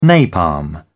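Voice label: "napalm", British English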